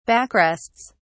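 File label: "backrests", British English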